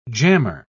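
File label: "jammer", British English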